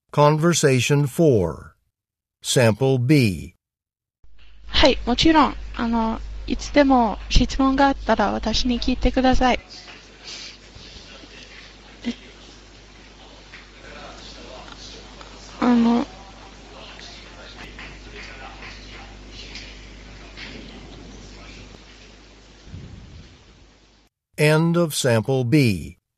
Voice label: japanese convo